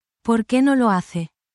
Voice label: spanish, spanish phrases